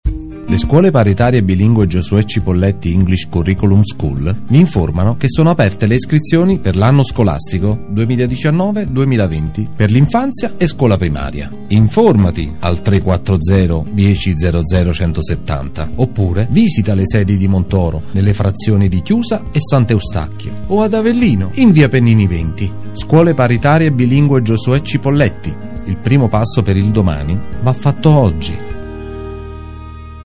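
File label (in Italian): istituzionale singola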